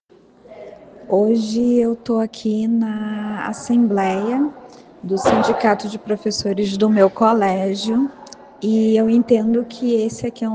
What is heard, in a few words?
Voice message